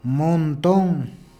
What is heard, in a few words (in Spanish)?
"montón", Peru Cuzco